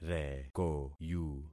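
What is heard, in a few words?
"rekoyu", concatenated syllables; Affixing experiment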